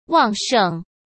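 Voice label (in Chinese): "旺盛", Mandarin Chinese; chinese-flashcards; hsk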